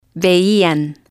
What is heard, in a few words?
"veian", spanish